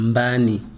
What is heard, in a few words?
"who", Chikunda